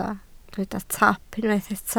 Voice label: "top", Welsh

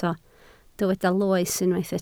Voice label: "loes", Welsh

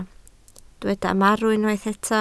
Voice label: "marw", Welsh

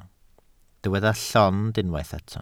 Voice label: "llond", Welsh

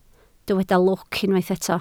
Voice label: "lwc", Welsh

